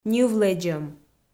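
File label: "ньывлэдзӧм", Komi, female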